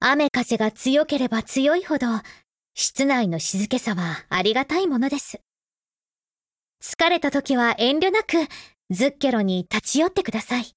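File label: affinity Japanese; Springfield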